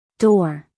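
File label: "door", American English, female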